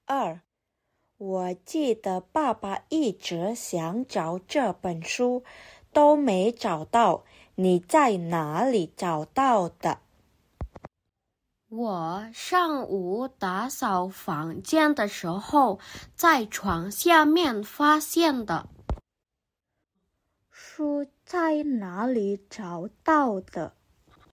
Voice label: Mandarin; mandari placement test hsk3